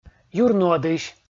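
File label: "юрнуӧдысь", Komi, male; kpv audio dict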